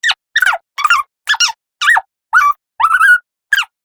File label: Cartoon Chipmunk Sounds, Cartoon Voice Sound Effects